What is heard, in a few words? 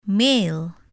male